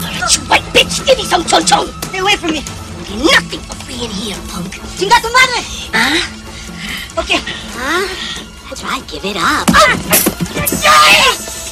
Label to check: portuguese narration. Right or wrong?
Wrong